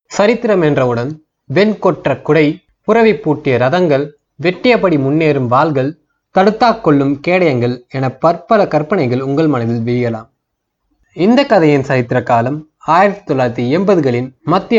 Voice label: Tamil, Male